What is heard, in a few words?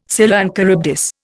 "Scylla and Charybdis", Indian